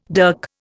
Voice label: "dirk", Indian